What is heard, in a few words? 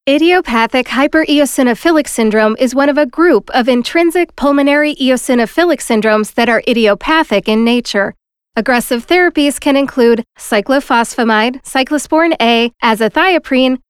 Medical Narration Informational